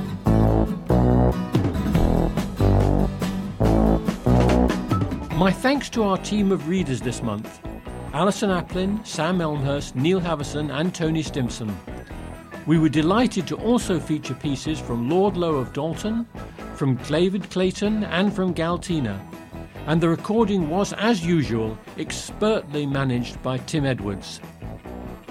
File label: Closing Announcement